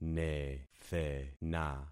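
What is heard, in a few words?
"nethena", concatenated syllables; Affixing experiment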